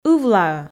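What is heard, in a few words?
"ывлаӧ", Komi, female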